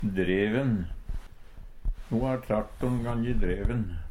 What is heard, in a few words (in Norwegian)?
"dreven", Numedalsmål